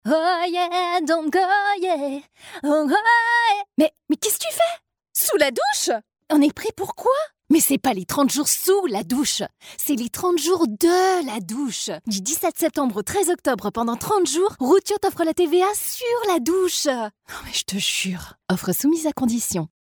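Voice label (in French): spot joue douche, voix publicité; voix claire, dynamique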